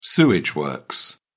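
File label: "sewage works", British English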